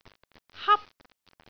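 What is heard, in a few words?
"hap1", Cantonese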